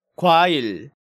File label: "Gwail", Korean